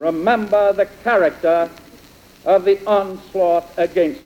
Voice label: Speech, New York; american english dialect; Franklin D Roosevelt